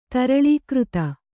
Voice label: "ತರಳೀಕೃತ", Kannada

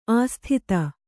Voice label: "ಅಸಾಧುತೆ", Kannada

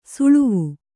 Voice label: "ಸಳವ", Kannada

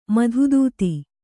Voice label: "ಮಧುದೂತಿ", Kannada

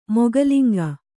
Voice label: "ಮೊಗಲಿಂಗ", Kannada; moga linga; noun

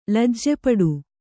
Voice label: "ಲಜ್ಜೆಪಡು", kannada

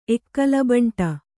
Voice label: "ಎಕ್ಕಲಬಂಟ", kannada

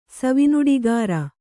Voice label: "ಸವಿನುಡಿಗಾರ", Kannada

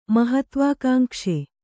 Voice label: "ಮಹತ್ವಾಕಾಂಕ್ಷಿ", kannada

